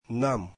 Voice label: russian us